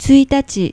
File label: "tsuitachi", Japanese